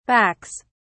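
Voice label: "backs", British English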